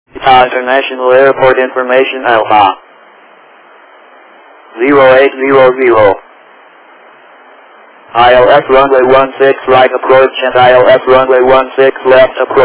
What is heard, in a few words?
ATIS, Japan; NRT